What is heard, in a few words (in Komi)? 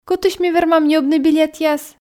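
кытысь ми вермам ньӧбны билетъяс, Komi, female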